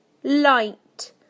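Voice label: "light", British English; PictureDictionary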